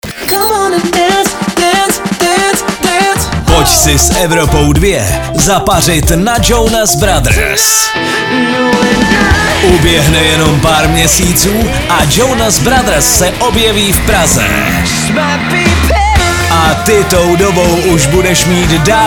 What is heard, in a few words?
promo; evropa2